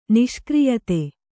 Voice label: "ನಿಷ್ಕ್ರಿಯತೆ", kannada